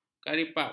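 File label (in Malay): "karipap", Malay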